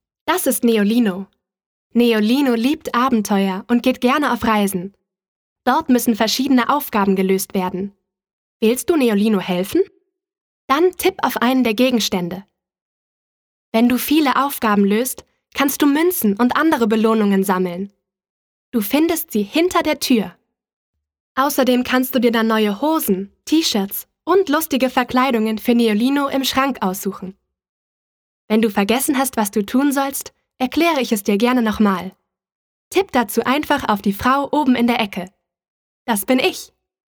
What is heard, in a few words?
E-Learning